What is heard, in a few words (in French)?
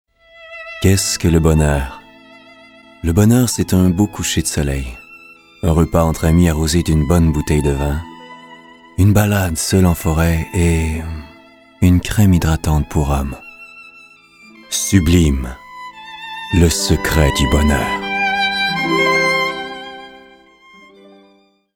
pub crème sublime